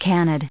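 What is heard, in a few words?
"canid", US English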